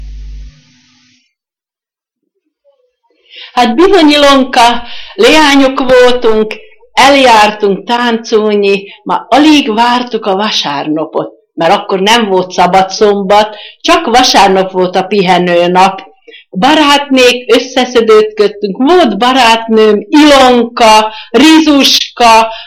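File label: dialect collection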